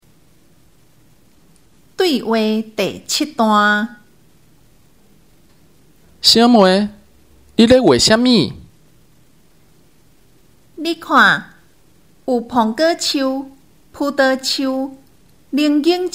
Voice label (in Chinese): 對話, 閩南語; 閩南語語言能力認證; 閩南語能力測驗題本